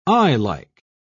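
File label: "eyelike", British English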